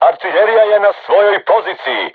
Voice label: voice message art charged, crew tanks serbian